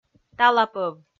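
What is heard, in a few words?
"талапӧв", Komi, female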